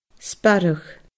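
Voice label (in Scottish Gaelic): "sparradh", Scottish Gaelic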